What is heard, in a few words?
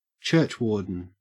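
"churchwarden", English, Australian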